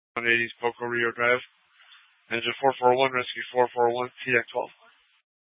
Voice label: FD Dpts VHF 156.240 Patch